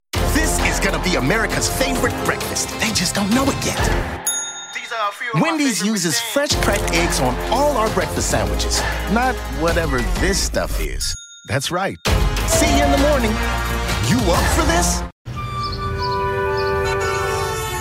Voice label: voiceover commercial men, Chicago

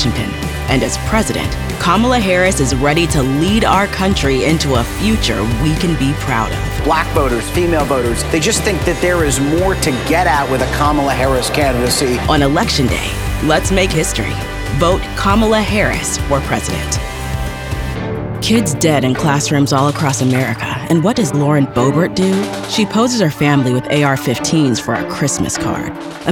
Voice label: Voiceover, Commercial, Women; New York

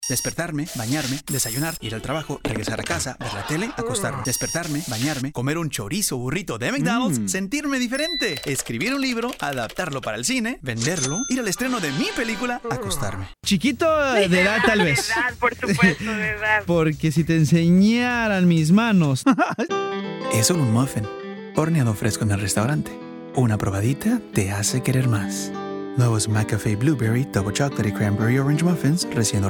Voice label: Voiceover, Foreign Language, Spanish; Chicago

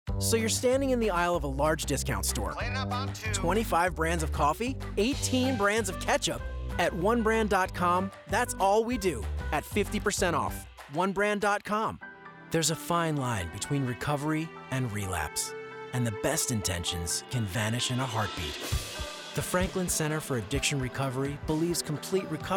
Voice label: Voiceover, Commercial, Men; New York

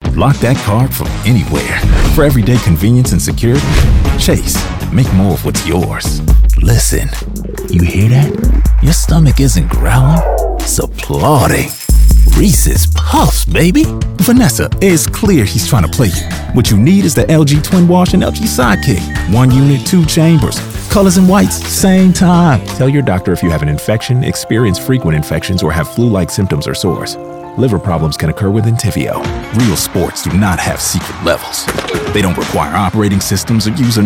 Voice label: Voiceover, Commercial, Men; Chicago

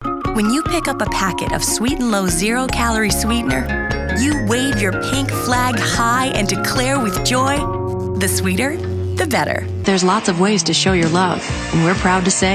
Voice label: Voiceover, Commercial, Women; New York

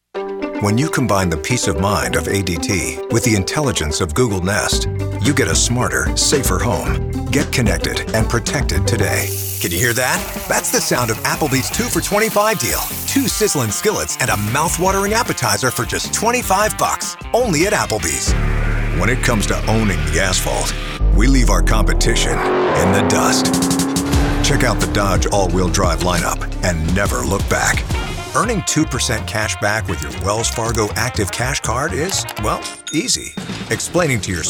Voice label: Voiceover, Promos, Network/cable, Men; New York

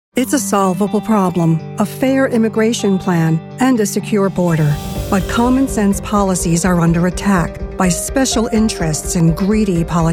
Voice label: voiceover audio books; new york

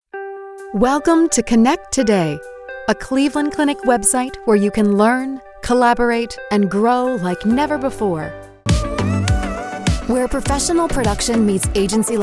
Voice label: voiceover promos network cable; women; new york

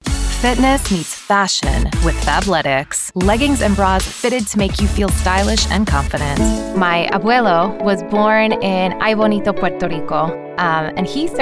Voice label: Voiceover Animation; New York

